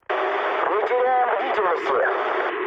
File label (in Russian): chat, speech ru; War Thunder